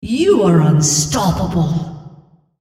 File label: female On Killing Spree